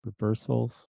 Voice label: reverse speech reversals